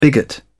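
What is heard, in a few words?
"bigot", British English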